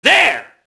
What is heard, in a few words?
mobster alert group; Max Payne